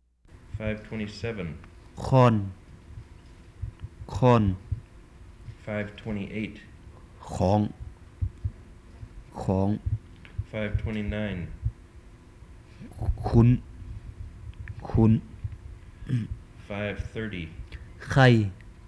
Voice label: Tai Nua Long List Comparative list; Gedney